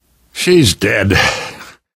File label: grief female generic, survivor voice